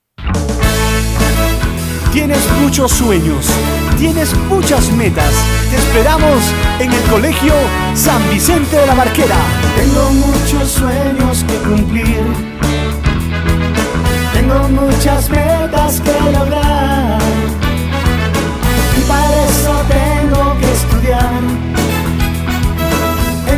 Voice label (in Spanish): audio oficial publicidad